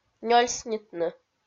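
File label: "нёльснитны" Komi-Zyrian, female; kpv audio dict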